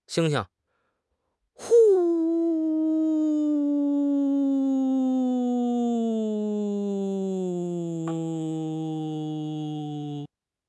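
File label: "xing1 xing5", Mandarin Chinese; HSK4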